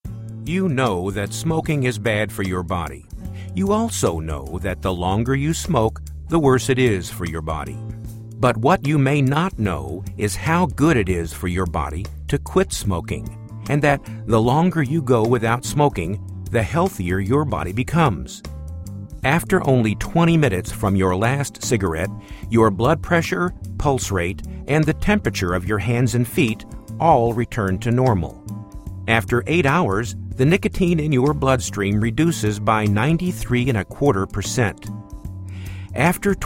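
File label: Narration Motivational, Voice Actor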